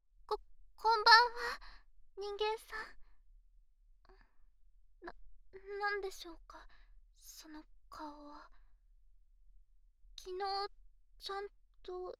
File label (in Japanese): ボイスのみ; 黄金のおもてなし